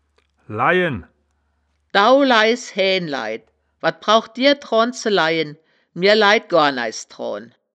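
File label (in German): "laien", wittlicher woerterbuch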